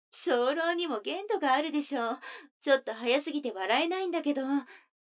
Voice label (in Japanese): 女の子台詞